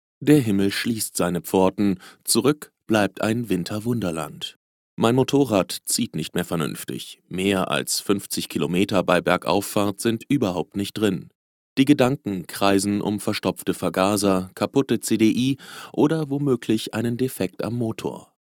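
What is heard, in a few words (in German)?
Hörbuch; seidenhart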